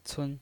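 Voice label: "cun", Mandarin Chinese pinyin voice, neutral tone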